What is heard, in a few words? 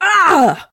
Female Constable combat sound